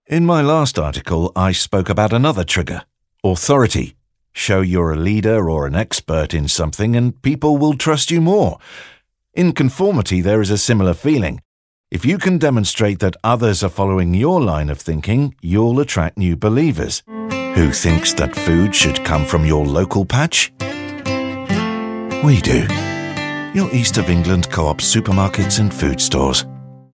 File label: natural english